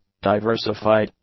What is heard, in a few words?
"diversified", English; adjectives